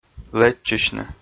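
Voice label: "лэдзчысьны" Komi, male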